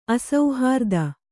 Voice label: "ಅಸುಹೃತ್ತು", Kannada

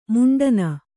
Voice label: "ಮಂಡಣ", Kannada

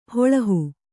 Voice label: "ಹೊಲ್ಲಹ", Kannada

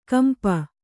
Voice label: "ಕಂಪ", Kannada

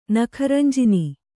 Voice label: "ನಖರಂಜಿನಿ", Kannada

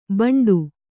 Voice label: "ಬಂಡು", Kannada

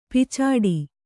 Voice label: "ಪೀಚಾಡಿ", Kannada

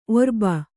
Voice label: "ಒರ್ಬ", Kannada

